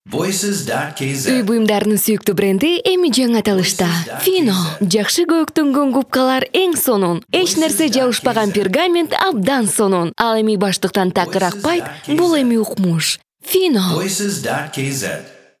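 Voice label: Kyrgyz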